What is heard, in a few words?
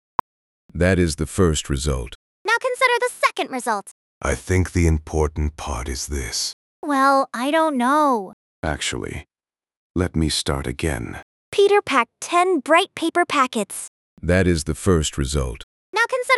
conversation